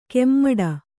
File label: "ಕೆಮ್ಮಡ", Kannada